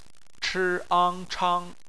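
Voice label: "chang", bopomofo, chinese; BPMF spelling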